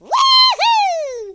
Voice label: "excellent", Cowgirl; speech

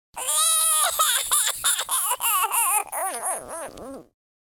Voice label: sad, speech, alien; Worms3D

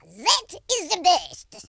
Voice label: "perfect", French; speech

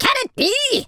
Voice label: "uh oh", Viking; speech

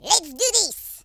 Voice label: "orders", French; speech

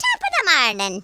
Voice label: "hello", Irish; speech

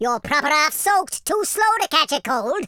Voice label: "come on then", Welsh; speech

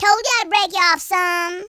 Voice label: revenge, Gangsta; speech

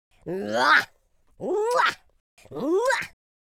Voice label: happy, Pirate

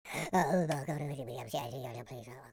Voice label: pray, Footy Fan speech

